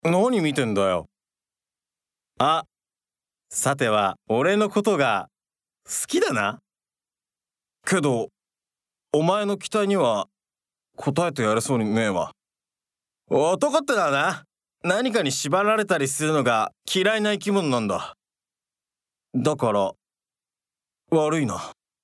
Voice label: self kowamote